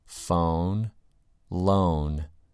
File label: "phone" "lone"